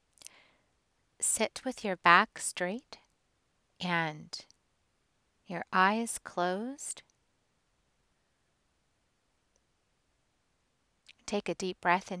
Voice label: grounding meditation